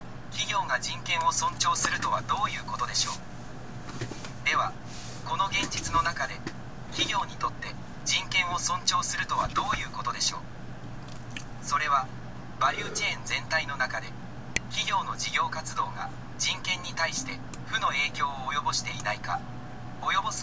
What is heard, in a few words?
elearning; japan; business and human rights